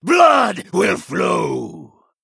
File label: Male Vampire Attack